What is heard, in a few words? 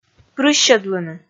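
"прыссьӧдлыны", Komi, female; kpv audio dict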